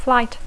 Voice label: "flight", English